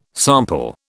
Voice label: "sample", British

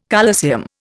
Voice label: "colosseum", Indian

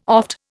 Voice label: "oft", Indian

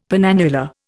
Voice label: "penannular", Indian; Dictionary

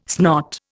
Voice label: "snot", Indian

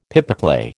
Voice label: "pipeclay", American

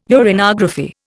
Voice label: "uranography", Indian

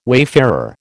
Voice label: "wayfarer", American

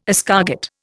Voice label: "escargot", Indian

